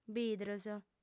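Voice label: "bidros", female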